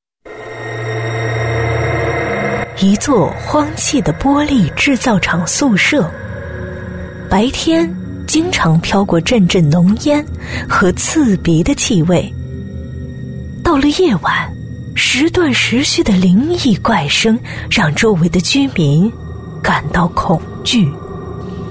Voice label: 女 惊悚 鬼故事

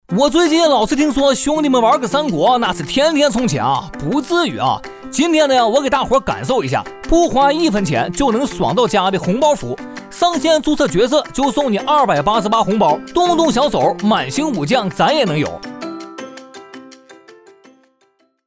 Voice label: 男 东北话 信息流

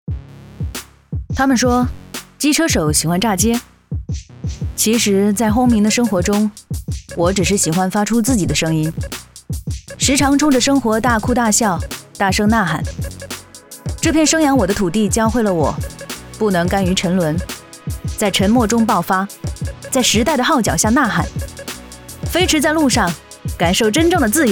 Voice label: 女 微电影 个性 酷

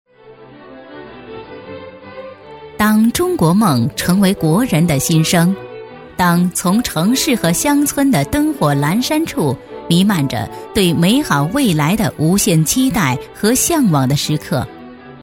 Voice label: 女 专题 磁性知性风格; 成熟; 国语女声配音; 果色奇香文化发展公司

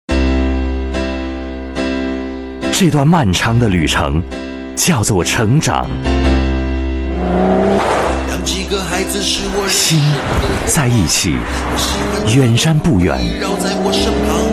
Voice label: 男 广告 上市TVC; 国语男声配音; 起亚KX7

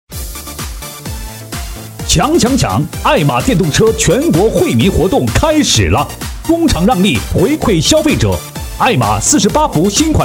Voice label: 男 电动车促销广告

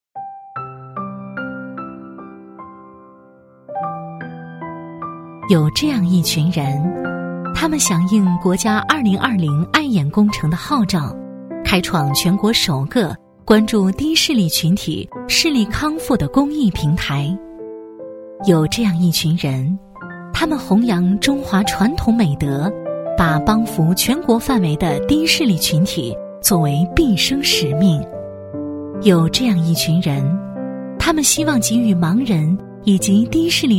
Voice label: 女 专题; 爱眼日

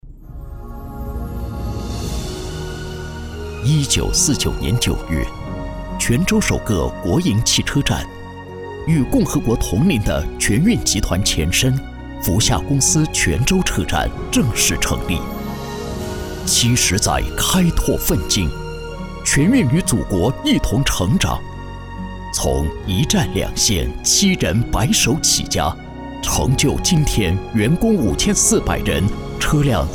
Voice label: 男 企业宣传片 磅礴大气

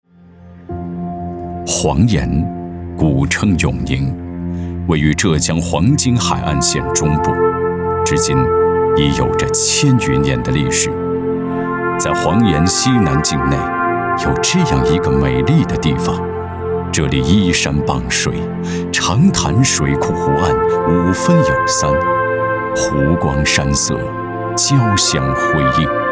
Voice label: 男 城市风光; 永宁

